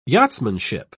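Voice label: "yachtsmanship", British English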